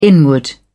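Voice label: "inward", British English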